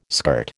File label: "skirt", American